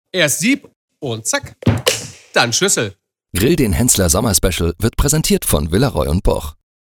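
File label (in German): Werbung Neutral; Fremdtake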